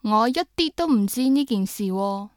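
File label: yue, Chinese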